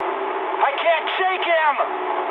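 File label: aircraft damaged mood high, speech English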